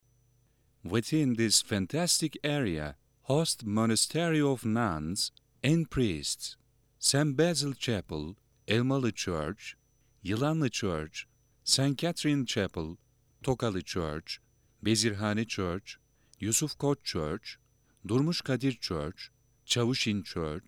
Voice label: ENG